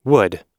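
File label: "wood", English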